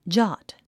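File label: "jot", English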